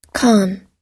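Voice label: "calm", American English; calm /kɑ́:m; (波やあらしがなく)穏やかな、静かな、平静な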